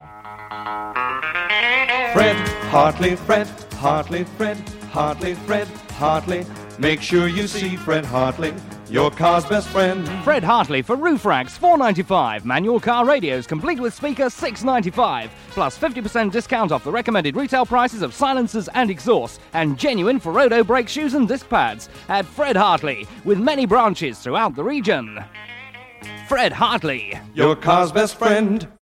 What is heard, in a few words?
Radio Advert; Radio Hallam; Fred Hartley